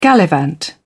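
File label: "gallivant", British English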